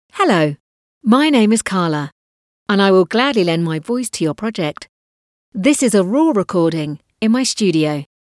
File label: Raw Studio recording